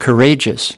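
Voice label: "courageous", English word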